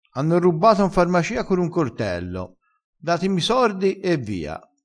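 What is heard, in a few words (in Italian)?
sordo, vocabolario dialettale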